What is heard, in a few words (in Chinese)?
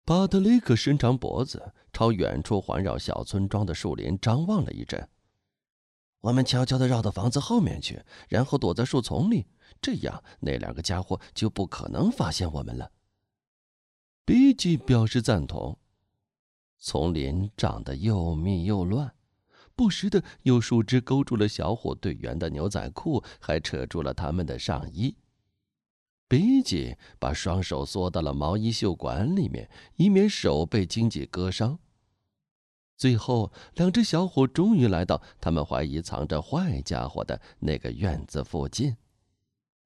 儿童惊险刺激